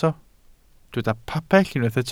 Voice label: "pabell", Welsh

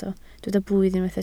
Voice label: "blwydd", Welsh

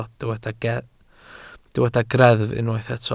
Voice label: "greddf", Welsh

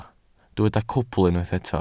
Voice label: "cwbl", welsh

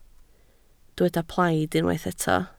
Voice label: "plaid", Welsh

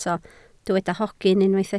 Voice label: "hogyn", Welsh

